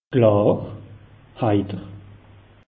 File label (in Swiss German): "klar", alsace; meteo